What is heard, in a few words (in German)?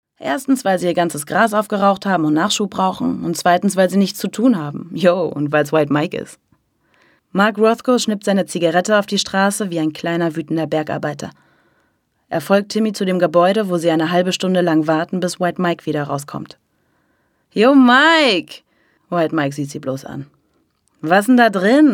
Hoerbuch amerikanisch; LOFT VOICES